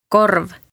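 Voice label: "korv", Estonian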